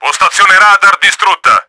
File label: RADAR DOWN, Italian; Battle Engine Aquila; XBOX